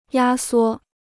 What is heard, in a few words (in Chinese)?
"压缩", Chinese